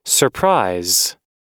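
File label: "surprise", American English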